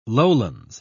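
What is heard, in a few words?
"lowlands", British English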